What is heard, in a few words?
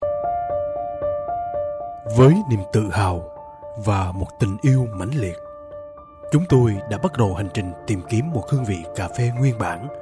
Vietnamese